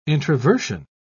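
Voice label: "introversion", English, uk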